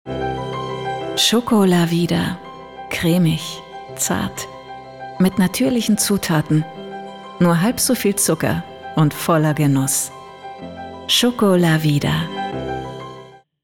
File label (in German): Werbeprobe Schokolade